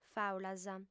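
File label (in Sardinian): "fàulas", Sardinian, female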